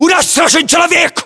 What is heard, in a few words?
reaction casualty generic, ru; localized english